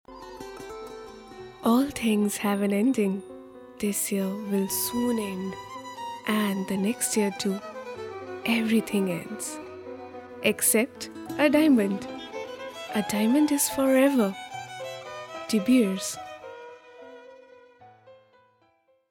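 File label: TVC, English; Debeers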